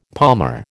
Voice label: "palmer", American